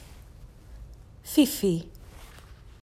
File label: "whiwhi", Māori